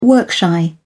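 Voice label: "work-shy", British English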